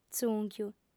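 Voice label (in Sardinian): "tzùnchiu", Sardinian, female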